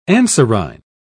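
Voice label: "anserine", British English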